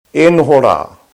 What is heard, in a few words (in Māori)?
"e noho ra", te reo